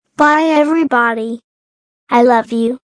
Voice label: bye everybody i love you, Robotic or Stuttery or Spooky VOCALS